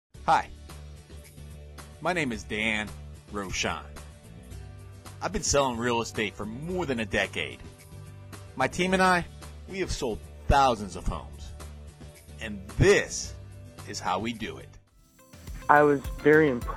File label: Audio Testimonial